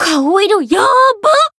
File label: heal, jp; millecha